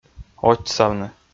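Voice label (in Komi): "очсавны", Komi, male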